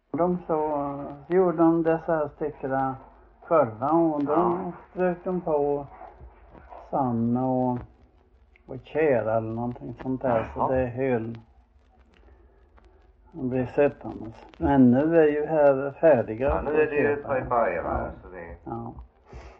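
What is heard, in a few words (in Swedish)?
Frillesås Halland, Svenska sagor dialekter; Folkminnen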